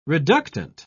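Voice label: "reductant", English, British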